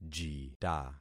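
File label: "jita", concatenated syllables; Affixing experiment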